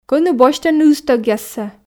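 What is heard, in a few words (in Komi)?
кӧні босьтӧны ыстӧгъяссӧ, Komi, female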